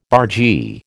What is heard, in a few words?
"bargee", American